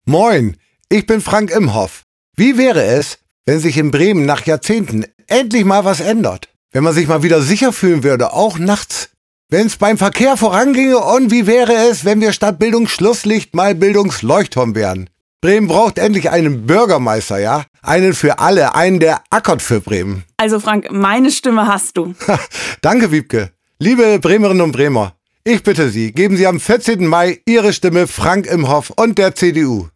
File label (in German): Radiospot; CDU; Frank Imhoff